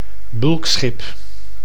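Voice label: "bulkschip", Dutch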